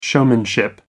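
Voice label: "showmanship", British English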